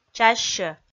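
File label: "чассьӧ", Komi, female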